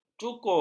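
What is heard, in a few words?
"cukur", Malay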